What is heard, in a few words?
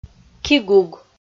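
"кигуг", Komi, female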